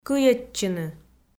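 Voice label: "кыйӧдчыны" Komi, female; kpv audio dict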